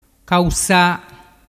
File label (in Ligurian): "causa", dialettu